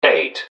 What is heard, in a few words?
"eight", english man; subway announcers; MetrostroiAddon